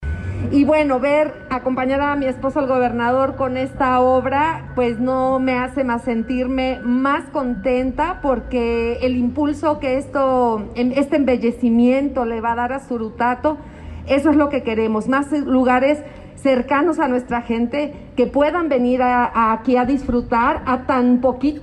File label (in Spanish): MENSAJE SRA.; SURUTATO